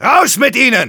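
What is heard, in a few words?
Engineer battlecry, German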